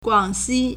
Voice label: "guang3xi1", Chinese